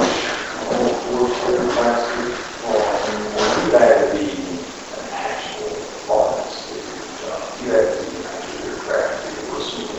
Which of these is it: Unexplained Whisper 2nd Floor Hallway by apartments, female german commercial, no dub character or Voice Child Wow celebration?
Unexplained Whisper 2nd Floor Hallway by apartments